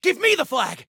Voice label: Flag Give Me, Soldier Male

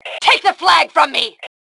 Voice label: Flag Take, Female

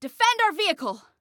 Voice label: Defend Vehicle, Female; Female 02

